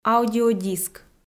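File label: "аудиодиск", Komi, female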